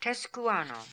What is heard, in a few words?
"Teskooano", pronounciations; Fourth Way; Beelzebub